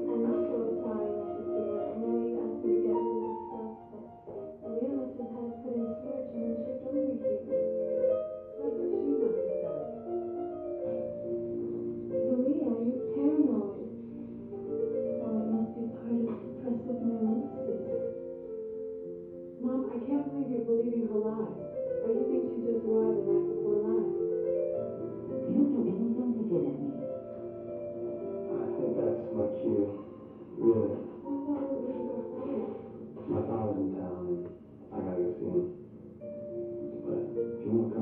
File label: Television; Daytime Show With Dialog And Some Music. From Next Room.